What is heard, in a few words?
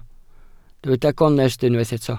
"gonest", Welsh